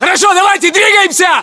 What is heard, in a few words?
order move generic, ru